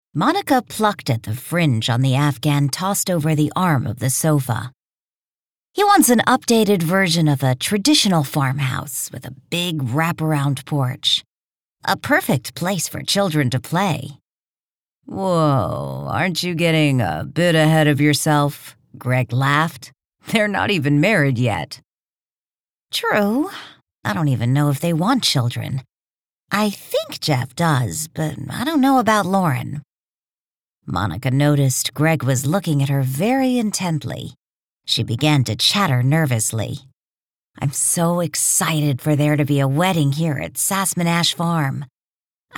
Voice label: audio books, American; USA